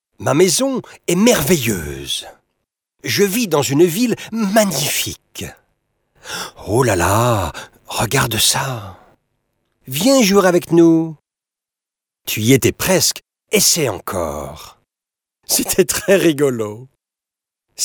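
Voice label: French male children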